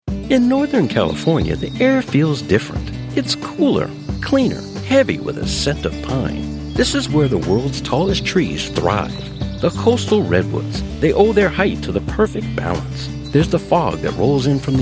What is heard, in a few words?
Narration